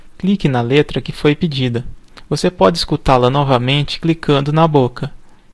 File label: click on letter, voices pt_BR; gcompris